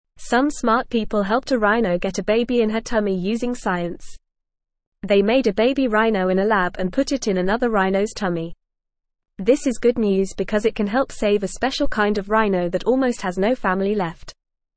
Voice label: Beginner FAST Reading, English; English Newsroom; Scientists Make Baby Rhino in Lab to Save Endangered Species

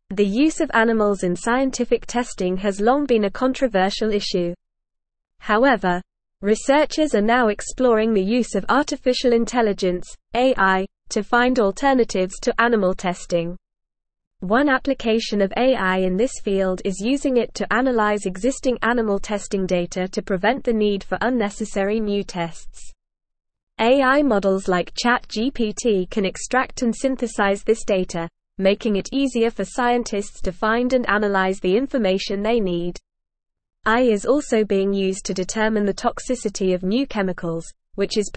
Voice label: English NORMAL Reading; AI Accelerates Search for Non Animal Testing Alternatives

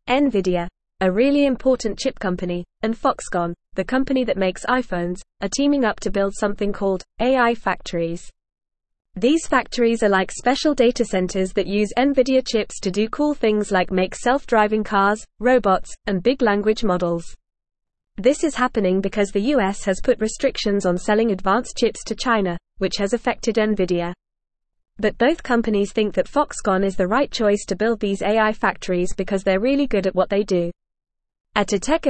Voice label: English Newsroom Upper Intermediate FAST Reading; Nvidia and Foxconn Partner to Build AI Factories

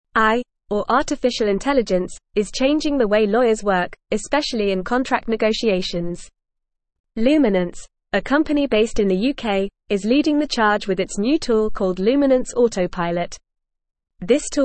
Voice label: English Upper Intermediate FAST Reading; Luminance to Launch Automated Contract Negotiation; English Newsroom

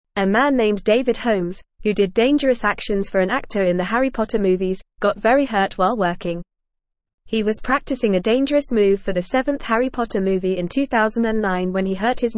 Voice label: English Newsroom Lower Intermediate FAST Reading; David Holmes The Strong Man Who Overcame Hardship; A man named David Holmes, who did dangerous actions for an actor in the Harry Potter movie

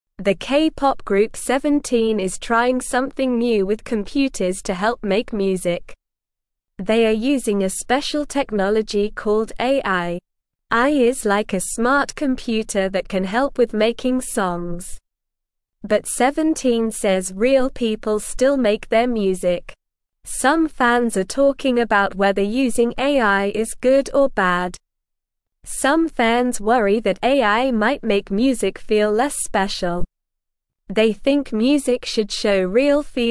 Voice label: English Beginner SLOW Reading

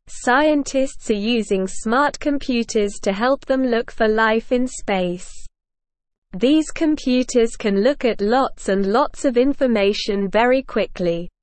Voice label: English SLOW Reading; English Newsroom; Smart Computers Help Scientists Look for Space Life

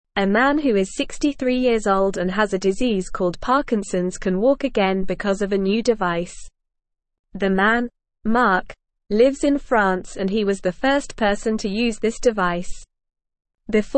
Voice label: English Lower Intermediate NORMAL Reading; Special Thing in Back Helps Man Walk Again; English Newsroom

